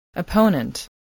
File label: "opponent", voice, spelling